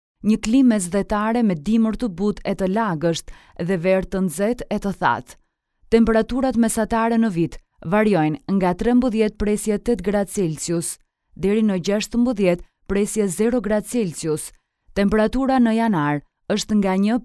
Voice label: female albanian voice over narrative